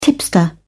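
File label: "tipster", British English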